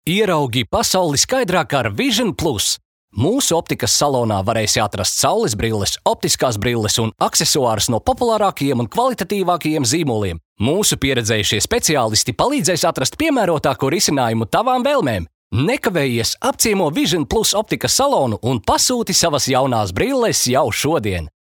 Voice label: Reklāma

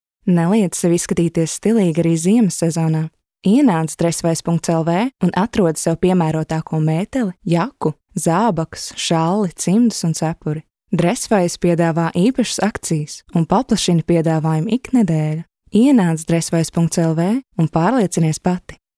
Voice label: Reklāma LV

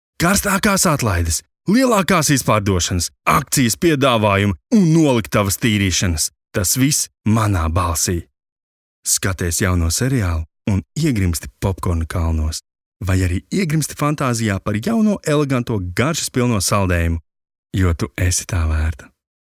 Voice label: Reklama

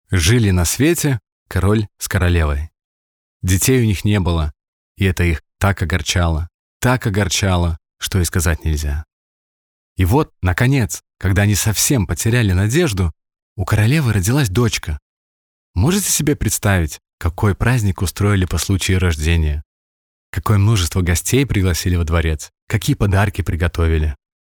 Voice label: RU Pasaka

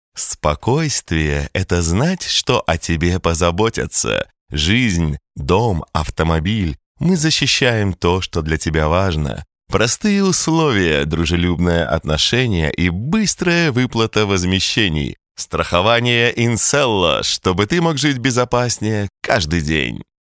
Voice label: Reklama

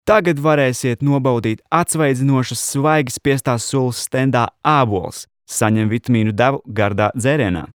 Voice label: lv

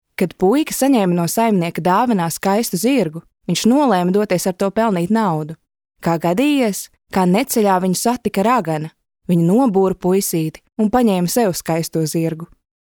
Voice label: Pasaka LV

